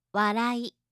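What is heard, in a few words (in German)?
"warai", japanische Mädchennamen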